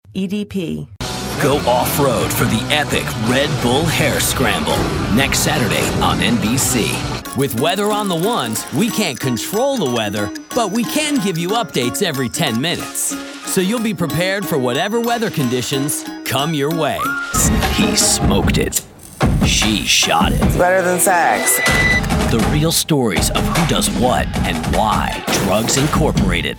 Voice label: promo, men